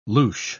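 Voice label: "louche", British English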